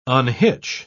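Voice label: "unhitch", British English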